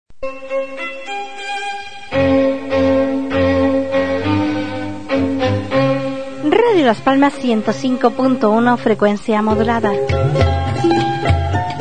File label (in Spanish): Promo Radio; Radio Las Palmas